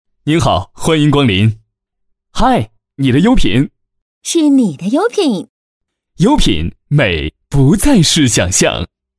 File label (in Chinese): 多人配音 男 女 自然对话; 优品